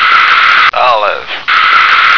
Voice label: "olive", American